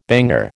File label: "banger", American